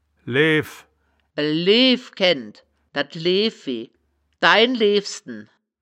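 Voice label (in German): "leew", wittlicher woerterbuch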